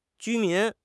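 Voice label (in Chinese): "居民", Chinese; HSK; HSK6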